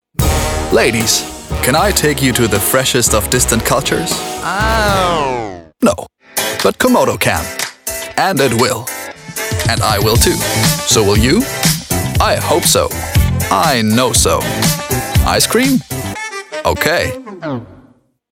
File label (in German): Werbung